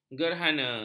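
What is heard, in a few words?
"gerhana", Malay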